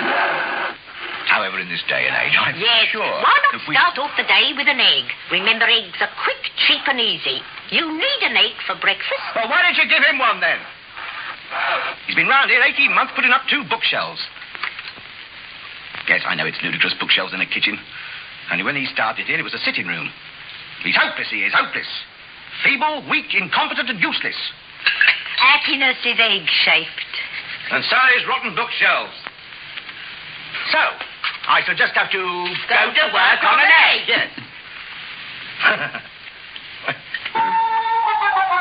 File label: Egg Commercials; OTR Commercials